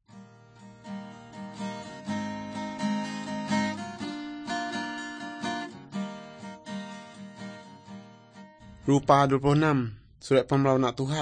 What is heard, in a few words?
good news, urak lawoi; malay